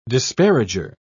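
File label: "disparager", English, British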